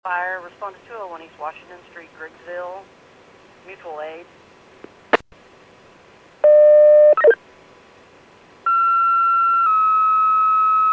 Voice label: PAGING